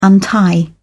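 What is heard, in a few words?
"untie", British English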